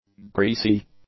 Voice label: "greasy", english; adjectives

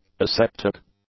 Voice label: "aseptic", English; adjectives

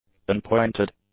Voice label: "unpointed", english; adjectives

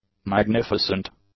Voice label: "magnificent", English; adjectives

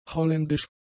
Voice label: "holländisch", German; adjectives

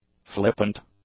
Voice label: "flippant", english; adjectives

